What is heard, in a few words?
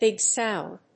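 "Big Sound", English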